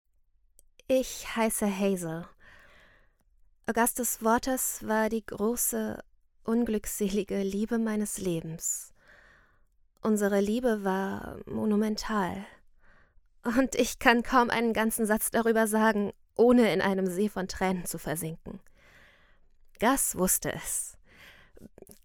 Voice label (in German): Hoerbuch Jugendbuch